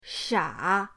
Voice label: "sha3", pinyin